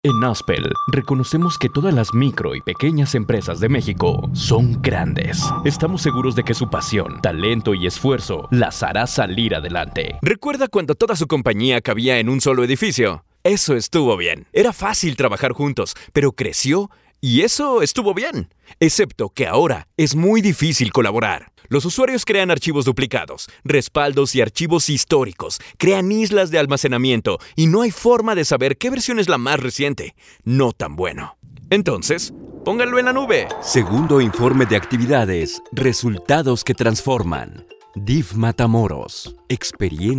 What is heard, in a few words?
spanish mexican e-learning